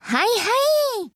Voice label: Voice JP; Phaetusa